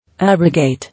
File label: "Abrogate", English